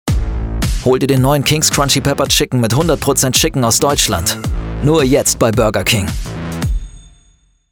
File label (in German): TV-Spot; BurgerKing